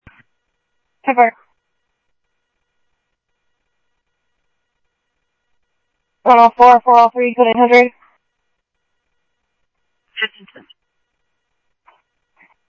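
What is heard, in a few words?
police radio dispatch, Scottsdale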